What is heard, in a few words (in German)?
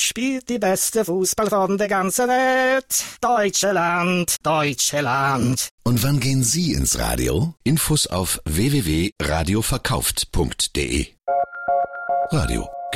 wetten pizzeria funk; radiozentrale